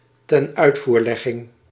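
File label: "tenuitvoerlegging", Dutch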